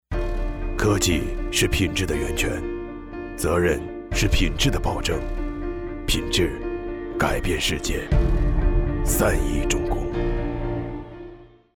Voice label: 模仿; 张涵予

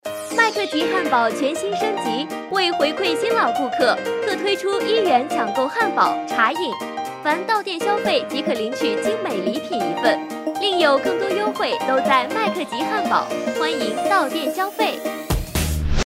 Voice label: 汉堡广告

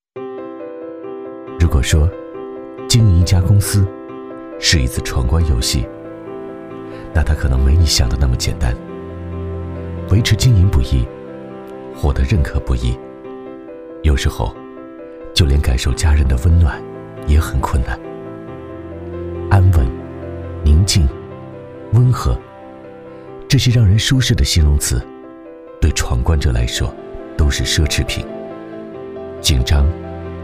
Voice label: 独白 自然 独白; 独白-光照进来的地方

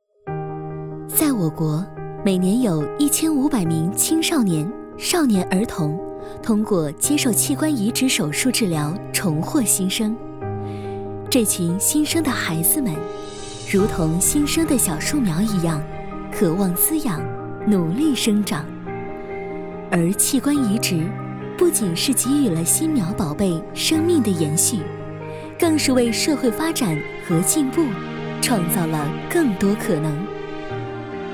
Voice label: 宣传温暖

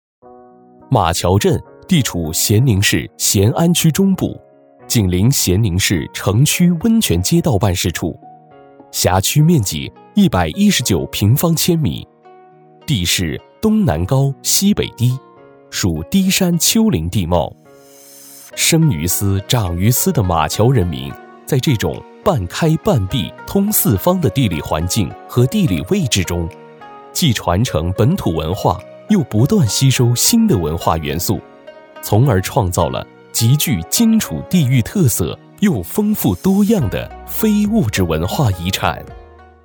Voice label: 国语专题非遗项目

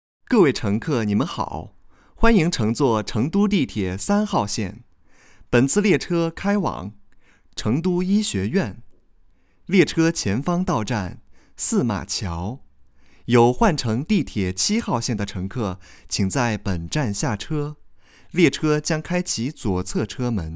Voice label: 地铁播报练习

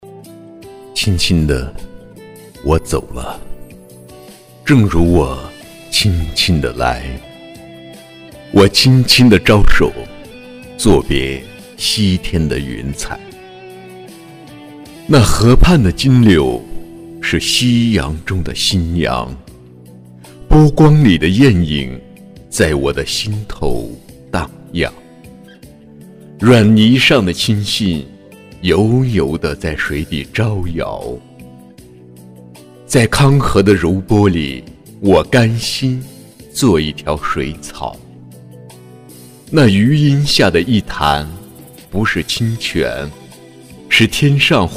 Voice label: 朗读; 徐志摩作品

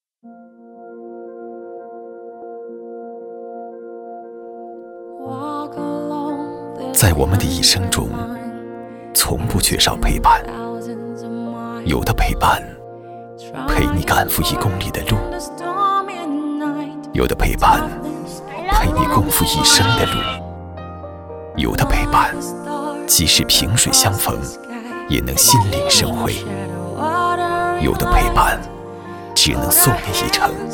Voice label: 品牌试音; 哈啰

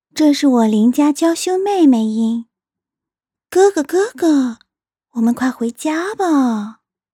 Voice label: 邻家妹妹音

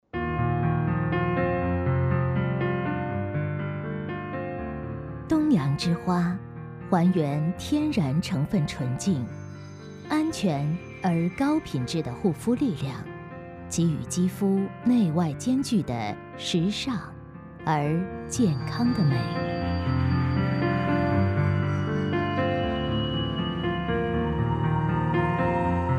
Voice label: 化妆品广告

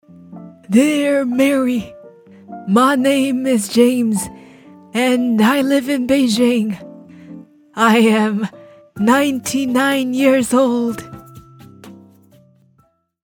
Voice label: 英老年

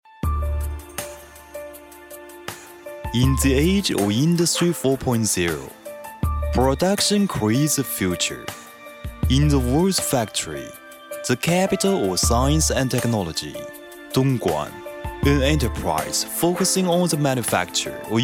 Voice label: 英文企业宣传片科技企业科技

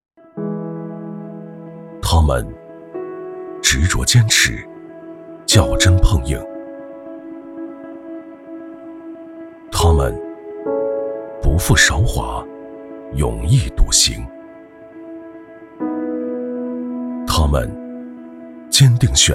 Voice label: 温暖大气旁白; 汉钢

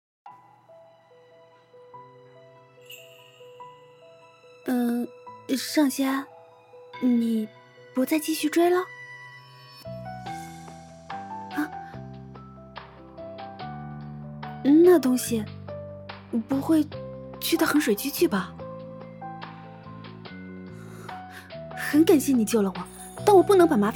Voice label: 少女音; 眷思量

